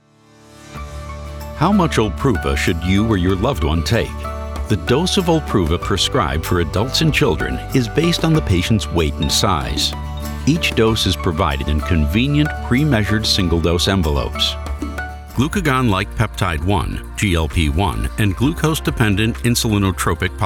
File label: Medical, medical narration